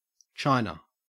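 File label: "china", Australian English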